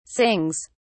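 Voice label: "sings", British English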